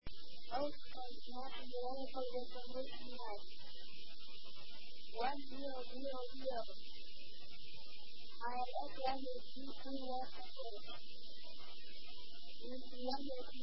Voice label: ATIS, Japan; osaka; Itami